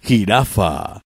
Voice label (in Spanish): "jirafa", es